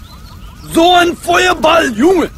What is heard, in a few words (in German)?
feuerball junge